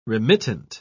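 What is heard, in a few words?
"remittent", British English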